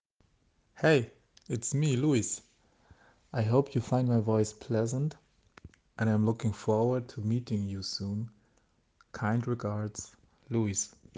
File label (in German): Stimmprobe englisch